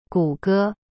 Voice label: "谷歌" gu ge, chinese; cyberchinese